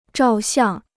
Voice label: "照相", Chinese